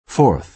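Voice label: "forth", American English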